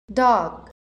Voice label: "dog", English